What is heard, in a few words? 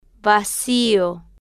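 "vacío" Spanish, female, slow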